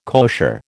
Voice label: "kosher", American

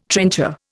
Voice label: "trencher", Indian

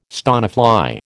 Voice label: "stonefly", American

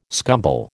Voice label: "scumble", American

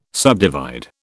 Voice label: "subdivide", British

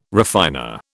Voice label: "refiner", British

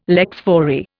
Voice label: "lex fori", Indian

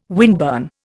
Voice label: "windburn", Indian

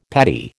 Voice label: "patty", American

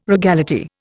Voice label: "regality", Indian

